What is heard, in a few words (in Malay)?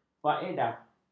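"faedah", Malay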